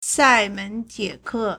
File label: "sai4 men2 tie3 ke4", Chinese